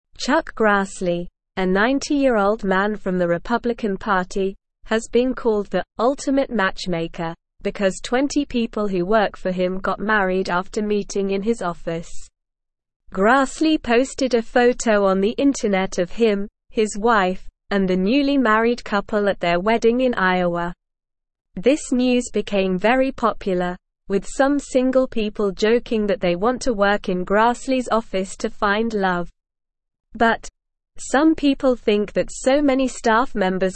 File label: English Newsroom Lower Intermediate SLOW Reading; Chuck Grassley The Love Helper in Congress